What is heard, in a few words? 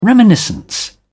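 "reminiscence", British English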